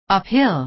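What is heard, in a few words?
"uphill", American English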